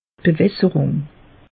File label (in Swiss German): "bewasserung", alsace; meteo